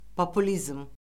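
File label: "populizm", Azerbaijani; Orfoqrafiya Lüğəti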